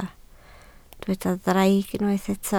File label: "draig", Welsh